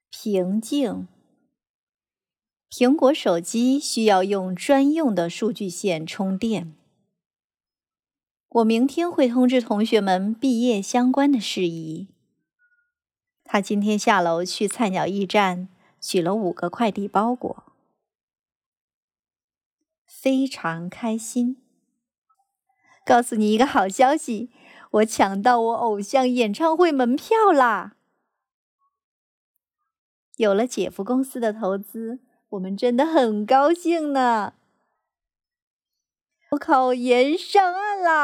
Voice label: 各种情感

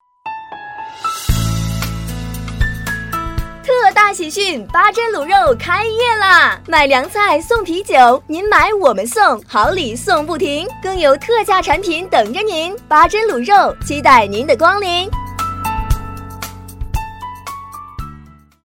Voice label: 促销特大喜讯

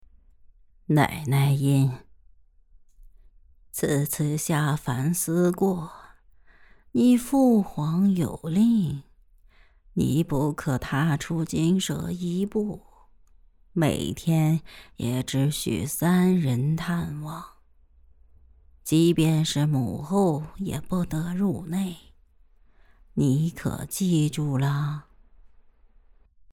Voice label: 奶奶音

